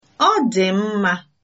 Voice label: fine, igbo

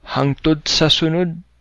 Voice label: goodbye, cebuano

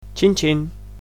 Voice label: "cheers", Catalan

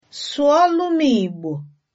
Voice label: speak to me in, igbo

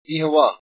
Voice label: goodnight, irish

